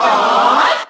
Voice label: "uh" group of men

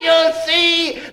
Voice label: cartoon; expletives

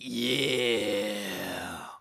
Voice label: "yeah" raunchy, voice

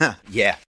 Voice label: "uh yeah", voice

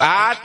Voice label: "eye", voice

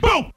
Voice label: "boom", Voice

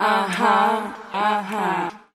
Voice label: "uh huh uh huh", looped; ooh ahh

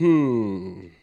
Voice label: "hmm", voice

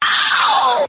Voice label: ow male airy, voice; ooh ahh; ow ouch

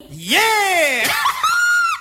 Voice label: yeah ha ha yeah to female, voice

